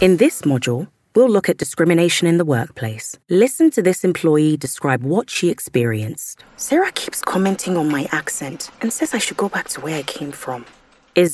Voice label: Corporate

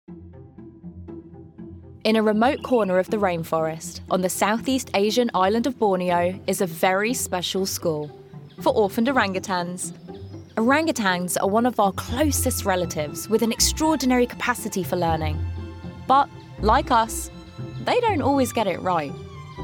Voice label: Documentary

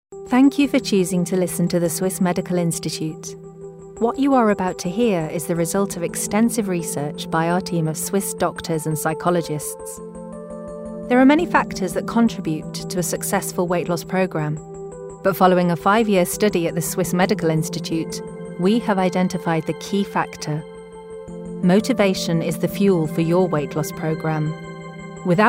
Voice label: Narration

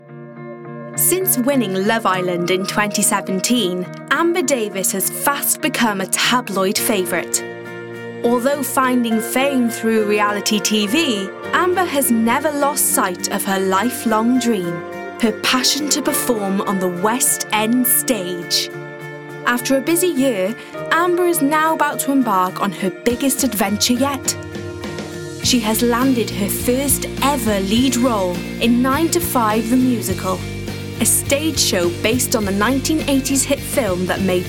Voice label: Narration, regional accents

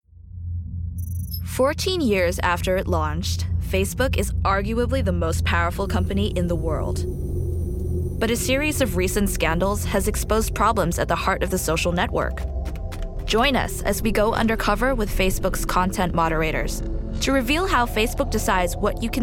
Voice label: Narration

